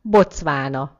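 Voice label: "botswana", Hungarian; gcompris; geography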